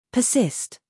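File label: "scandal", American; persist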